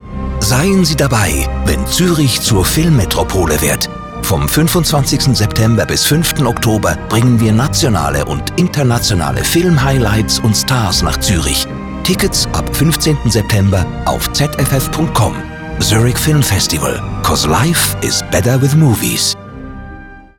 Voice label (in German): Radiospot Sprecher Hoch-dt.; radio spot off stimme; ZFF25; zurich film festival 2025